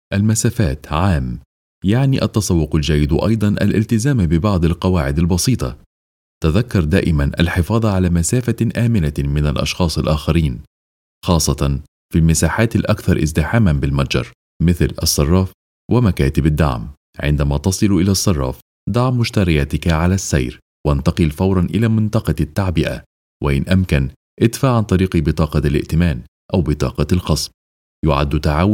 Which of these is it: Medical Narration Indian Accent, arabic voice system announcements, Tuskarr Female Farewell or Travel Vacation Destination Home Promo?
arabic voice system announcements